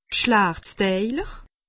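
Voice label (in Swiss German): "gschlachtsteiler", Bas-Rhin; medecine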